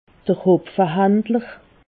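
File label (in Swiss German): "hopfehandler", Alsatian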